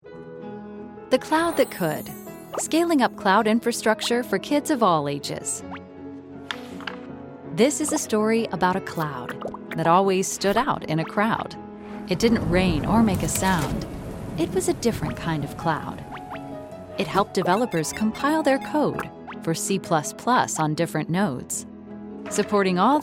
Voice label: english american corporate videos; Synchronsprecherin; Heimstudio in Chattanooga